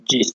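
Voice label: "gist", Nigerian Pidgin, male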